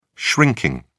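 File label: "shrinking", British English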